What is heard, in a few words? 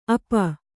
"ಅಪ", Kannada